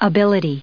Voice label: "abilities", us